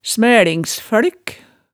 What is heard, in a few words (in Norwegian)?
"smælingsfølk", hemsedalsmaalet